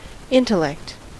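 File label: "intellect", US English; pronunciations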